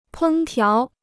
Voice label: "烹调", Chinese

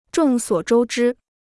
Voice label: "众所周知", Chinese

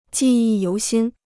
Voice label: "记忆犹新", Chinese

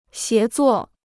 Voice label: "协作", Chinese

